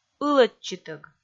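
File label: "ылӧдчытӧг", Komi, female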